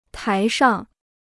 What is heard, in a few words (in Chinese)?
"台上", Chinese